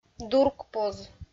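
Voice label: "дуркпоз", Komi, female; kpv audio dict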